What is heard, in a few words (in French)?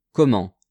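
"comment", French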